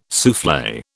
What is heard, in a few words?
"souffle", British